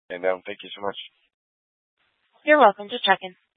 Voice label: Fire Departments Mesa Simulcast; TOPAZ